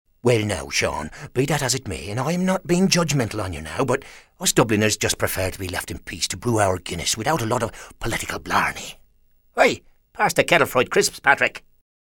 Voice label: IRISH SOUTHERN